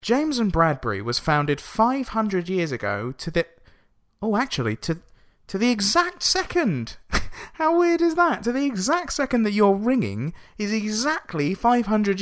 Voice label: Voice Mail

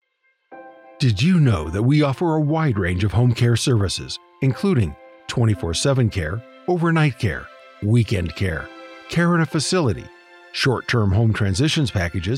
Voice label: Auto Attendant; Heart Calligraphy

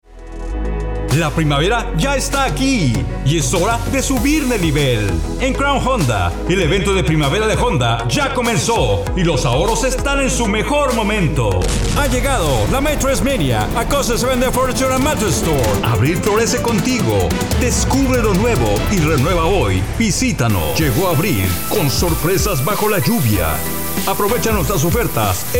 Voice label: Spanish

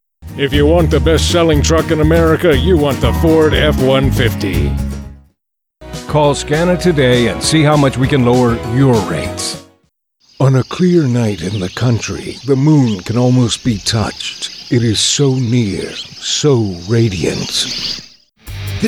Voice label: Commercial Amplified

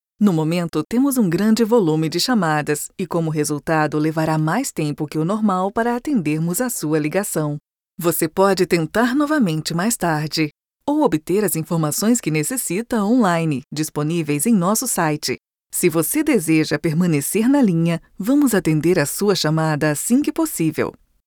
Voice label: IVR pt

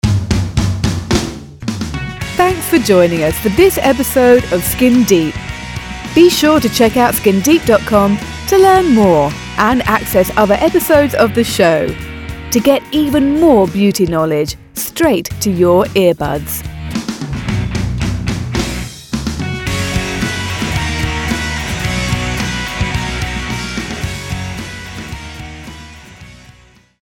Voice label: podcast

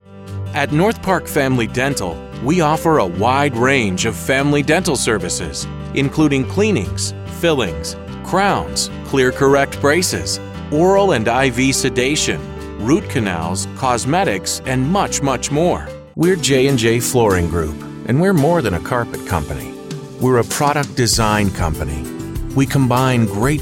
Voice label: On Hold

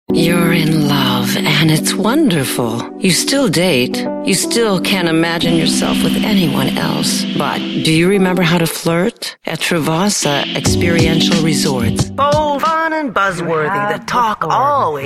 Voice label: commercial Promo

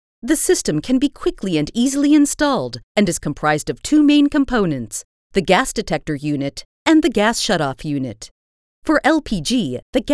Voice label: e-learning

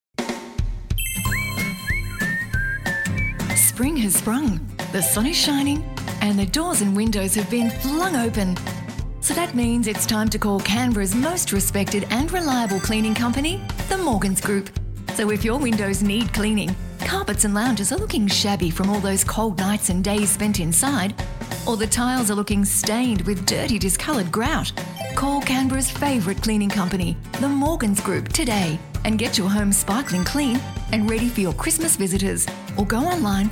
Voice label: radio commercial; WJR

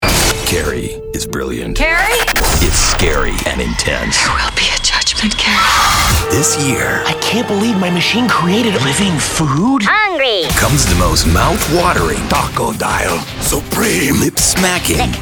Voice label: Trailer

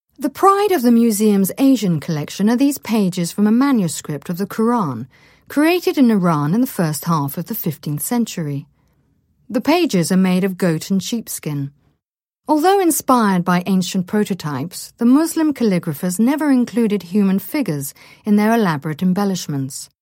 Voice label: Documentary Audio guide

